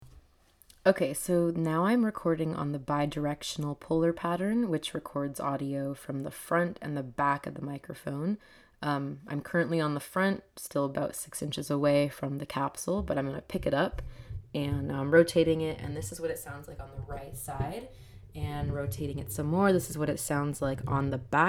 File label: JLab Talk PRO bidirectional microphone